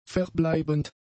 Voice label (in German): "verbleibend", German; adjectives